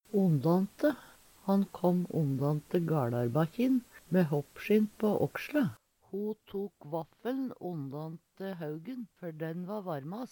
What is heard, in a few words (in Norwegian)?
ondante, Numedalsmål